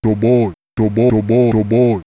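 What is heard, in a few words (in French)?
Voix menace, du son cartoonesque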